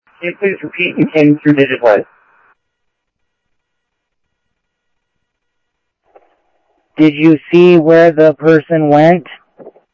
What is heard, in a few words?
Security, scanner audio